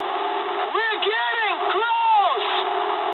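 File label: English near waypoint mood high; War Thunder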